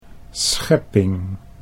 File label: "schepping", Dutch